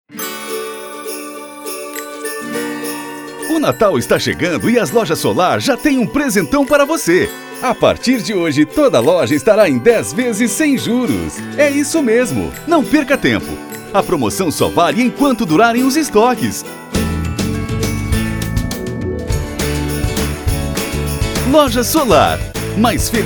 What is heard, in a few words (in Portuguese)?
Spot Natal; Lojas Solar